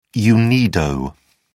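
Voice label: "unido", British English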